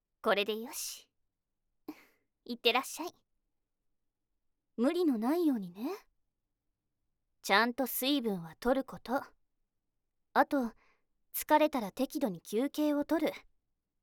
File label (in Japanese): システムボイス いってらっしゃいボイス; 輝夜